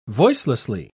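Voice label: "voicelessly", British English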